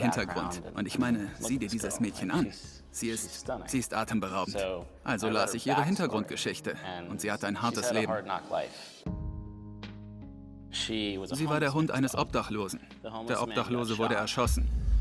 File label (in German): Hunde Doku VOICE OVER